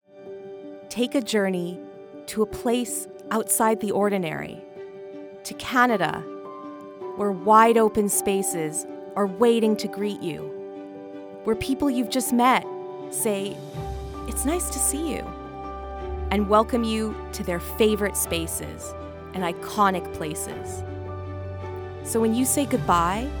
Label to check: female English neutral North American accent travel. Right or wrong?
Right